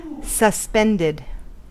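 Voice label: "suspended", American English; pronunciations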